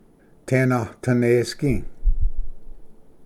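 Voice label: "tenatanesgi", Cherokee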